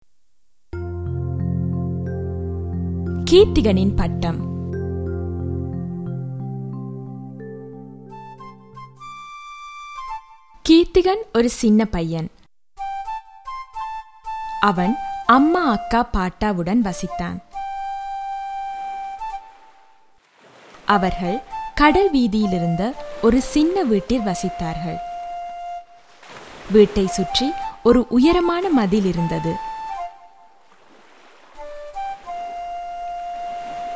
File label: Tamil